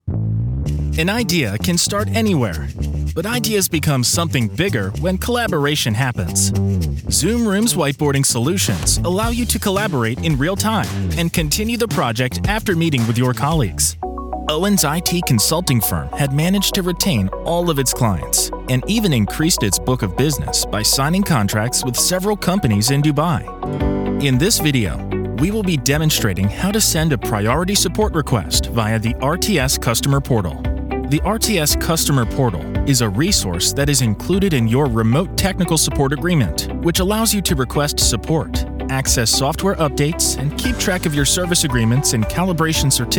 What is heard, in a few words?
Corporate, voiceover